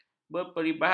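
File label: "berperibahasa", Malay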